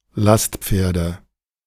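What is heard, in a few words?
"Lastpferden", German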